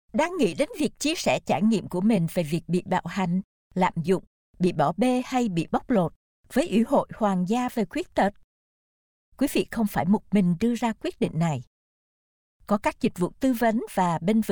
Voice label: vietnamese; dss disability